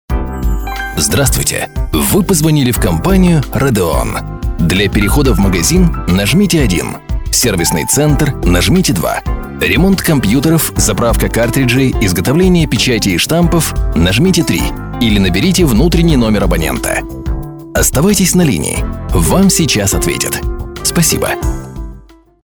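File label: russian interactive voice response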